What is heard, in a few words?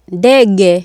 "ndege", swahili